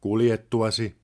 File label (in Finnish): After, Finnish, male; Opas